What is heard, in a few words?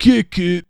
Vocal "Kick It", Male